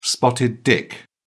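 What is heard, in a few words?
"spotted dick", British English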